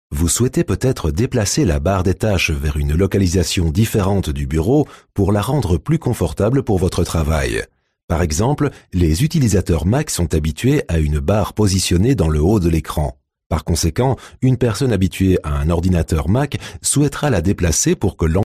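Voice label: French, male, elearning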